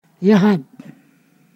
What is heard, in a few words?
"sea", Ponosakan